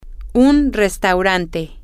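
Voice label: a Restaurant, Spanish, female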